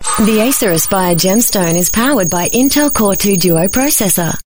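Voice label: AU English, Australian English; ACER Gemstone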